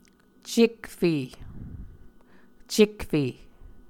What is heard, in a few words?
"cekfe", muscogee